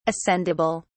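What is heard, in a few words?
"ascendable", British English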